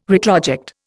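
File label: "retroject", Indian English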